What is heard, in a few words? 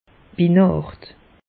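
"binacht", Alsatian, Bas Rhin; meteo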